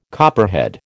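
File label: "copperhead", American English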